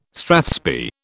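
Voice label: "strathspey", British English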